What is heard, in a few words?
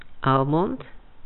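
"almond", Canadian, female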